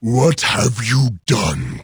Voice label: jeers; garrosh